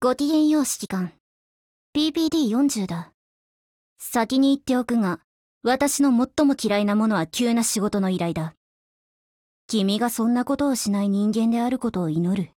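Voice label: gain, Japanese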